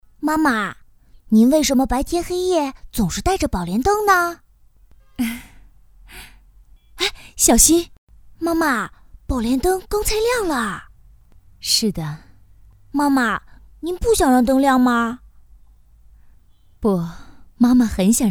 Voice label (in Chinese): 动画 模仿; 宝莲灯模仿